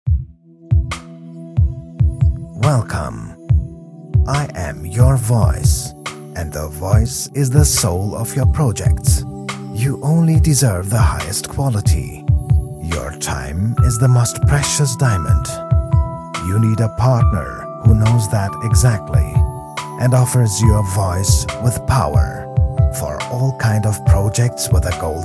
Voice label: Showreel EN